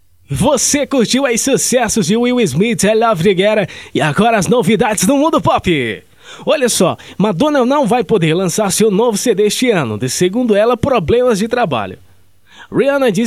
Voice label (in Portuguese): offs locucao